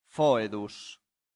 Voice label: "foedus", Latin; santillana

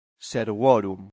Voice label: "servorum", latin; santillana

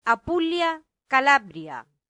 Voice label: "apulia calabria", Latin; santillana

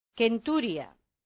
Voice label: "centuria", latin; santillana

